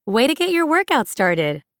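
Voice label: US English workout; iOS Sounds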